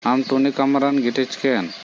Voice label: Korku; Aam tuni kamran gitichken?

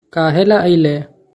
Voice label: Kahela aile/ ailhu hal?, Magahi; Why did you come?

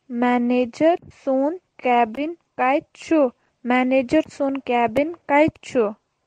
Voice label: Kashmiri; Where is the manager’s cabin?; Manager sund cabin kaet chu?

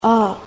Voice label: "Ahh", Konyak

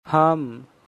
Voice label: "Hum", Angika language

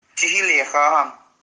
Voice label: Is it a book?, Kuki

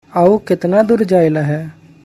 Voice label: Aau ketna door jaila hai?, Magahi

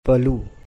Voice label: "Palu", Bhili; He

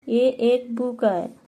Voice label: Ye ek book aay, Halbi; It is a book.

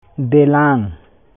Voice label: Santali; Walk

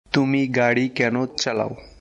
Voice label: Bengali, Bangladeshi Accent; Tumi gadi kayno chalao?

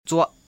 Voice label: "Chva", Lotha; Eat

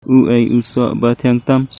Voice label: Khasi

